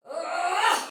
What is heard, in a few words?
Attack Heavy Soldier Female, Battle Barks; Sinecure